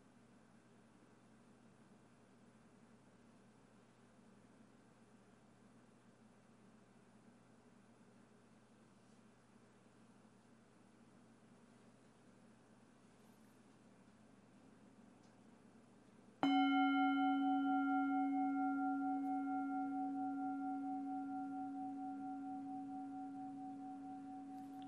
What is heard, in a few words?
meditation guided breath